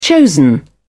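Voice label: "chosen", British English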